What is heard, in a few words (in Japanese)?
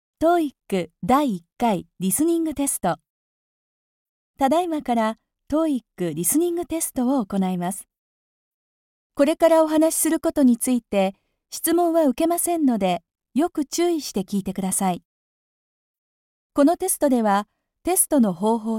バイリンガルTOEICリスニングテスト